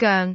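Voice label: "goeng6", Cantonese; microsoftHiuGaai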